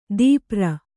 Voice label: "ದೀಪ್ರ", Kannada